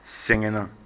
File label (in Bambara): sɛgɛna, Bambara